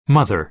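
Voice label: "mother", American English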